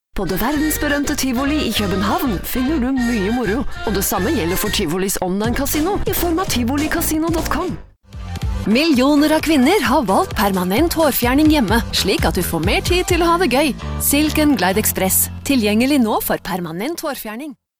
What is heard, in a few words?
Norwegian Native